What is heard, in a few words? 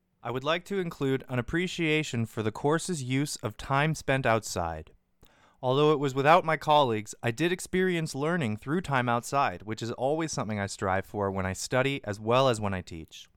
Voice Commentary; Non-Instructional Material